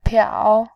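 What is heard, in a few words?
"piao", Mandarin, tone 3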